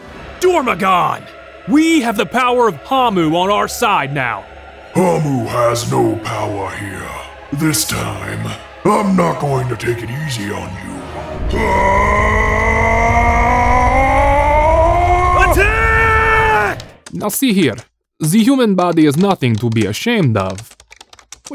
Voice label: Character